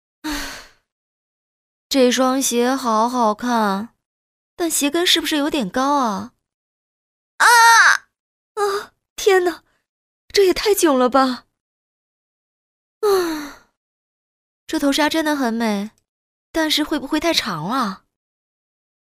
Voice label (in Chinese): 动画 角色 新娘心里潜台词